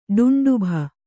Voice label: "ಡುಂಡುಭ", Kannada

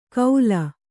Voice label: "ಕೌಲ್", Kannada

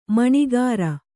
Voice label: "ಮಣೆಗಾರ", Kannada

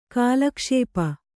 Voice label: "ಕಾಲಕ್ಷೇಪಿ", Kannada

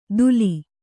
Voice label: "ಧೂಲಿ", Kannada

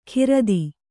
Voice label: "ಕಿರಾತಿ", Kannada

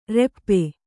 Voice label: "ರೆಪ್ಪೆ", Kannada

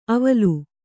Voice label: "ಅವಲ್", Kannada

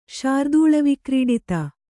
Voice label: "ಶಾರ್ದೂಳವಿಕ್ರೀಡಿತ", kannada

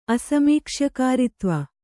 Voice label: "ಅಸಮೀಕ್ಷ್ಯಕಾರಿತ್ವ", Kannada

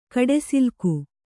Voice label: "ಕಡೆಸಿಲ್ಕು", kannada

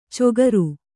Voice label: "ಚೊಗರು", kannada

